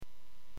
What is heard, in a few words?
Aviation 122.7; KSTE